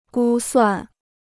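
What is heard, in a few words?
"估算", Chinese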